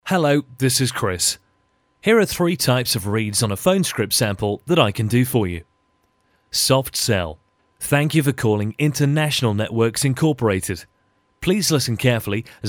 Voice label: british; freedomvoice